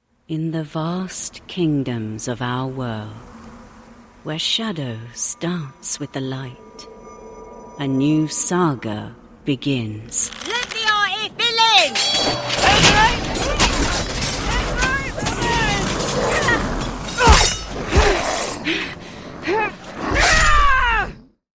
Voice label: videogame sample fantasy war f uk narrator elf soldier creature; monster